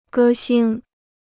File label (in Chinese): "歌星", Chinese